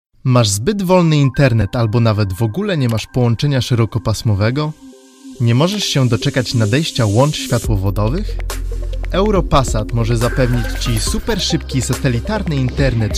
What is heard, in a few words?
Polish